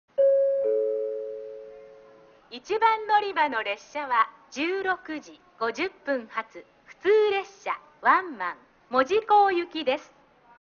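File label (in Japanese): 1番のりば停車中案内放送, 中津駅; 普通ワンマン 門司港行き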